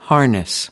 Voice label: "harness", US